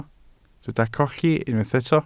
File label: "colli", Welsh